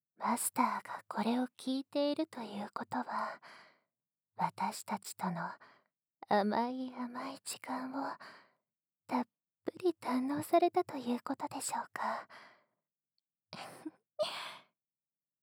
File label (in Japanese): フリートーク